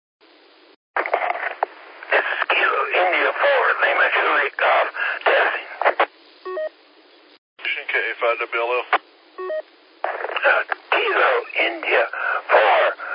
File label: qso rec simplex logic